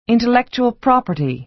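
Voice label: "intellectual property", American English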